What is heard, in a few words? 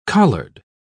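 "collard", British English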